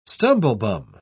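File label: "stumblebum", English, uk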